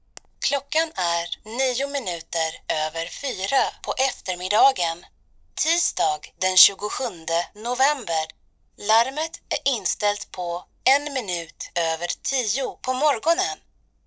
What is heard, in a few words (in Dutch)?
Se vrouw